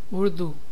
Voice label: Hi Urdu